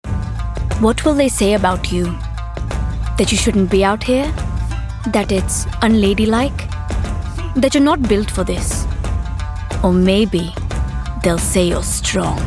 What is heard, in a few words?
Indian accent